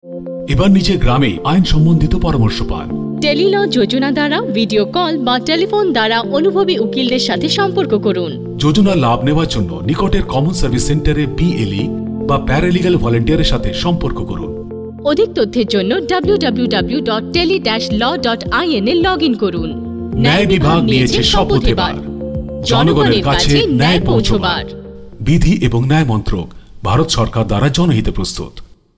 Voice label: Bangla